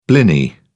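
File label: "blini", British English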